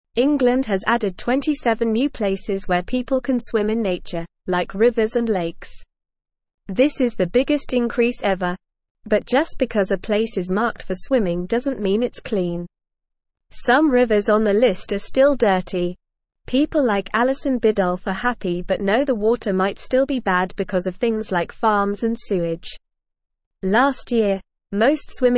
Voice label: English NORMAL Reading; 27 New Places to Swim in Englands Nature